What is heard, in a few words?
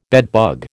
"bedbug", American